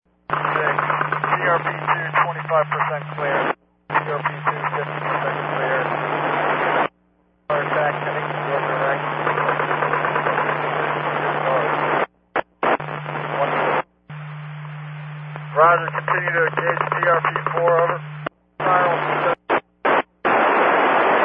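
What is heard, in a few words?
Accidental Relay, Satcom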